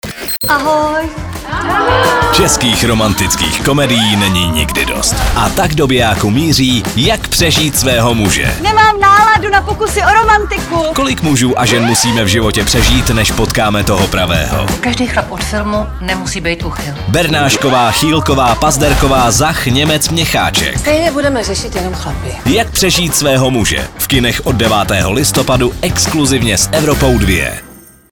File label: promo; evropa2; jak prezit sveho muze